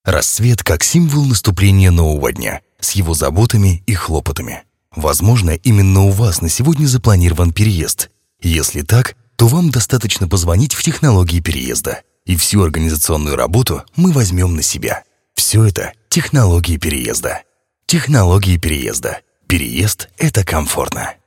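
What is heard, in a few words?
ROLIK, advert; Technologii Pereezda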